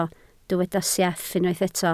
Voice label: "sieff", Welsh